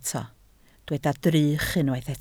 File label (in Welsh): "drych", Welsh